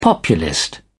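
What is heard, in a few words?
"populist", British English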